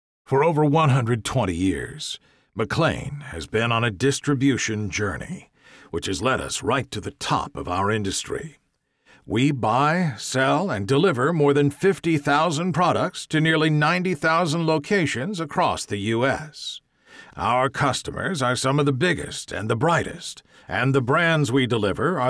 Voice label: Corporate Image Experience Confidence